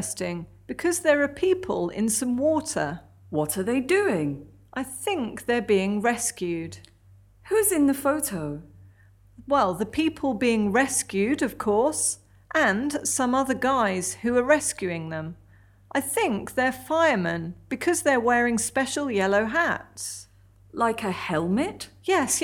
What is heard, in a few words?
conversation